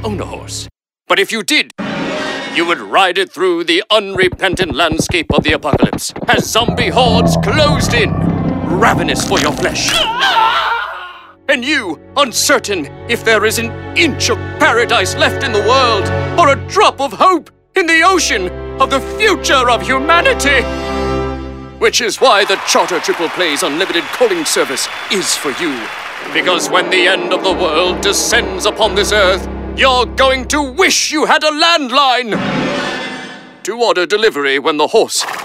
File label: Dramatic